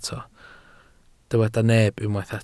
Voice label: "neb", Welsh

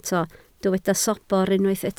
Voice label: "sobr", Welsh

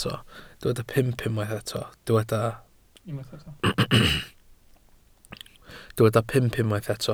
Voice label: "pump", Welsh

